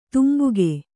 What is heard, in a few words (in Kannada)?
"ತಂಬಾಕು", Kannada